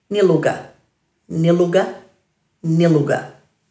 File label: "niluga", Dhurga